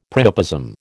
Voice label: "priapism", American